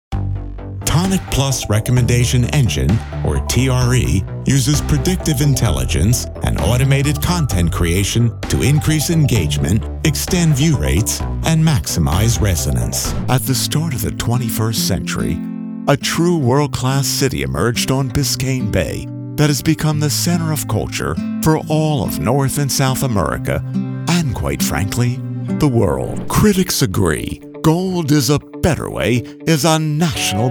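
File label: Commercial and Trailer with Music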